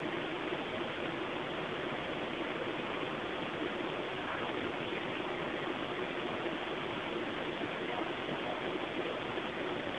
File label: "Help Me" sound; EVP, or electronic voice phenomena, are said to be the disembodied voices from spirits